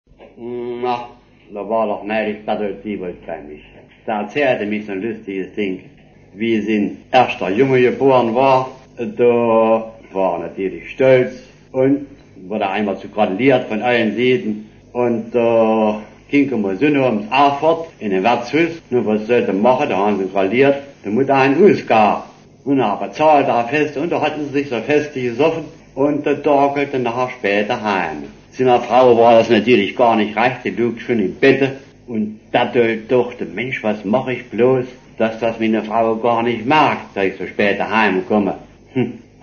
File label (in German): Thueringisch